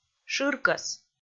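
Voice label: "шыркӧс", Komi, female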